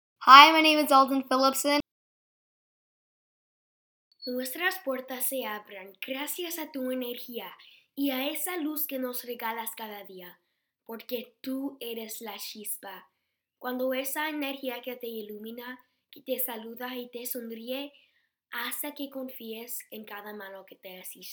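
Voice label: SPANISH, voiceover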